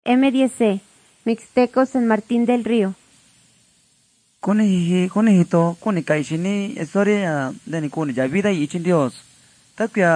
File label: mixtec diagnostic spanish mexico